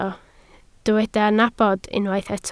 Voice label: "nabod", Welsh